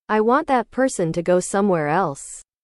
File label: english